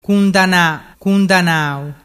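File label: "cundananau", Vernazzese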